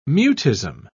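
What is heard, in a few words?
"mutism", British English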